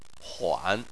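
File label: "huan", Chinese, third tone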